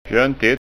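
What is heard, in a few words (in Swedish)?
"fjontigt", framtidsskånska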